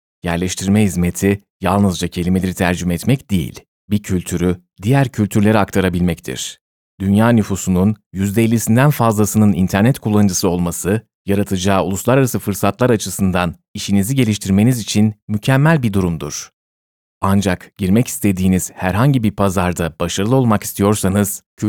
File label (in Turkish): turkish male